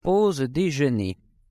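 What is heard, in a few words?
pronunciation "pause dejeuner", French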